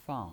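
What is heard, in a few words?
"fang", Mandarin Chinese, fourth tone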